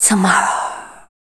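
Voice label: vocal female, Dance Vocals, spoken words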